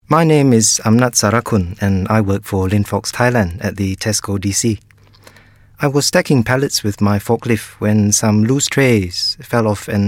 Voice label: thai accent; EM Voices